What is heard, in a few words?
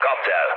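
attack position, mood low, Hungarian; speech; War Thunder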